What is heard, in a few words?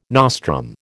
"nostrum", American